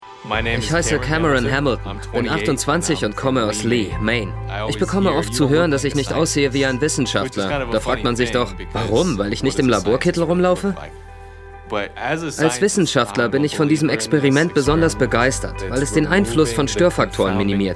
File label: Voice-Over; Love is Blind